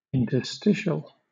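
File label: "interstitial", British English; pronunciations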